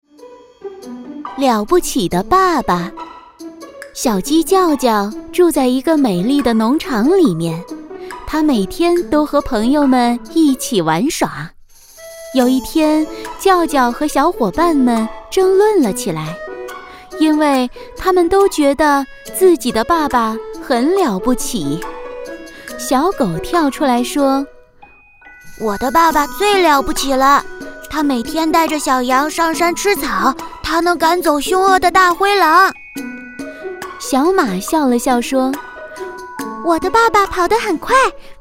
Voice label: 角色 绘本故事; 了不起的爸爸

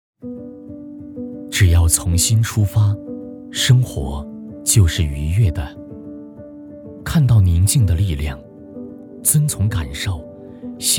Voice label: 旁白 走心; 从心出发

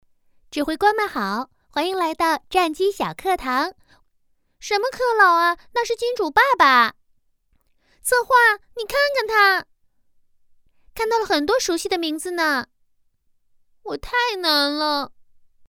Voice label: 角色 游戏卡通 女

